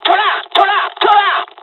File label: japanese; first wave; War Thunder